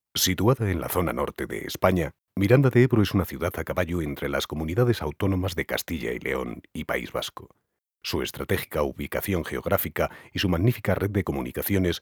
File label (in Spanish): corporativo